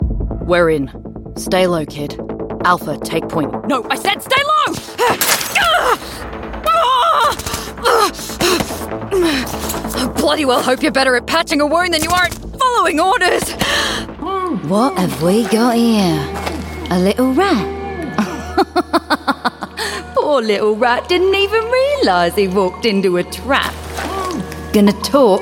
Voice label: australian voice videogame, Australian Female Voice - Characters Video Games